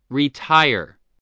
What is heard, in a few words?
"retire", English